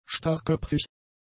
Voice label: "starrköpfig", German; adjectives

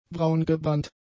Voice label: "braungebrannt", German; adjectives

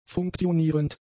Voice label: "funktionierend", German; adjectives

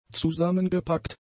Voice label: "zusammengepackt", German; adjectives

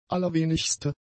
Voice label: "allerwenigste", German; adjectives

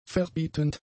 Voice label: "verbietend", German; adjectives

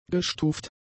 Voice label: "gestuft", german; adjectives

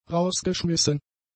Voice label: "rausgeschmissen", German; adjectives

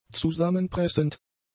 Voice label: "zusammenpressend", German; adjectives

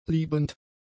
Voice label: "liebend", German; adjectives

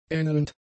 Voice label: "ähnelnd", German; adjectives

